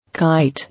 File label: "Kite", English